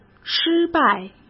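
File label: "失败" Mandarin Chinese; hsk; chinese-flashcards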